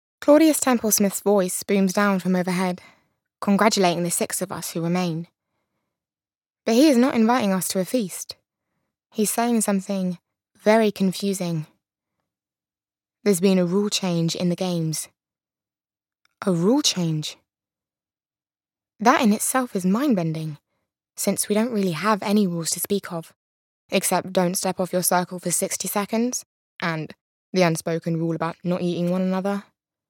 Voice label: Audiobook